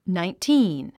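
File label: "nineteen", English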